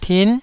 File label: "tin9", taiwanese Syllables